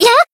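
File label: Voice attack, Japanese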